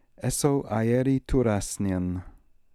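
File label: "Essoaieritoorassnian", pronunciations; Fourth Way; Beelzebub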